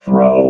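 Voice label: "throw", robot voice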